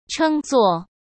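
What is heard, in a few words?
"称作", Mandarin Chinese; chinese-flashcards; hsk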